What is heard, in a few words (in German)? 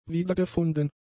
"wiedergefunden", German; adjectives